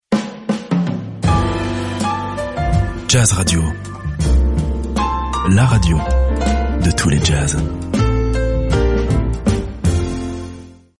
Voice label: French M Radio Commercial; voice over